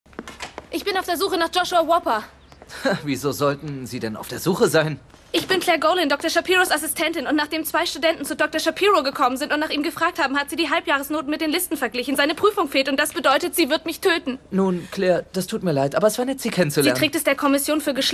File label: Stimmprobe Greek